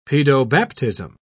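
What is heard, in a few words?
"paedobaptism", British English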